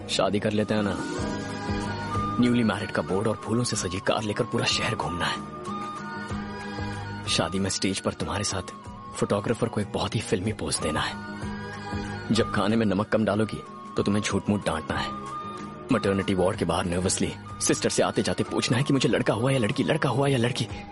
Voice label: Dialogue Ringtone; Super Khiladi 4